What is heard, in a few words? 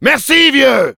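Demoman thanks for the teleporter, French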